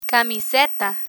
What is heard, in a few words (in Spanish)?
"camiseta", Spanish; learn spanish